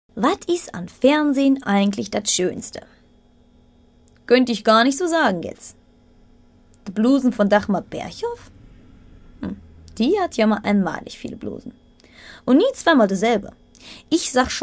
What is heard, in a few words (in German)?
hoerbeispiel ruhrpott dialekt